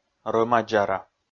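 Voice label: "рӧма-дзара", Komi, male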